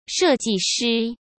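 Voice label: "设计师", Mandarin Chinese; chinese flashcards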